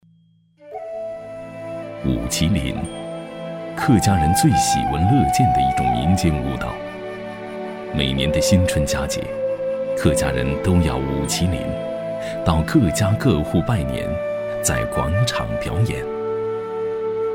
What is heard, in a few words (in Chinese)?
男国 纪录片 人文历史; 客家人